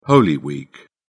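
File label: "holy week", British English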